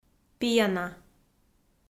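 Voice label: "пияна", Komi, female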